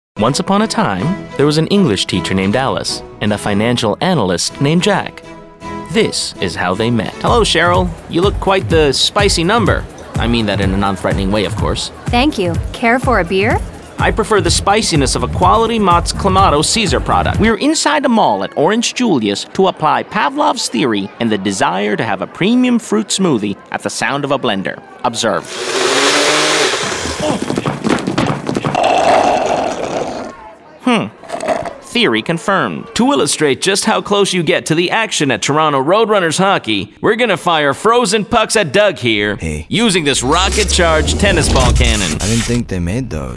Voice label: commercial male